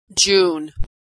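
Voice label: "june", American English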